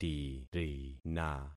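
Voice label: "tirina", concatenated syllables; Affixing experiment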